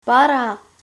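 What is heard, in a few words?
stop, Lingua Franca Nova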